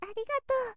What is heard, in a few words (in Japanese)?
"arigatou", shozyo